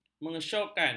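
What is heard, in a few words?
"mengesyokkan", Malay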